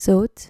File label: "zout", Dutch